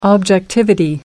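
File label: "objectivity", British English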